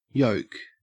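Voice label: "yoke", Australian English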